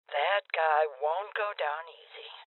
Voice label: Voices Heavy Spotted Female Old; rebel cops 2019 gamerip